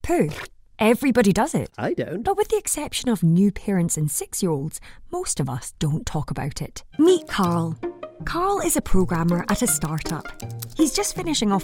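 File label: British English Corporate; GoLocalise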